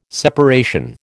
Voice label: "separation", American

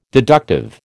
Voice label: "deductive", American

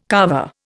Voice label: "kava", Indian

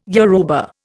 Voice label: "Yoruba", Indian

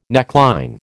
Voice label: "neckline", American

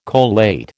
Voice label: "collate", American

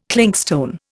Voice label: "clinkstone", Indian

